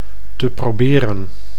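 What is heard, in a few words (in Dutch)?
"te proberen", Dutch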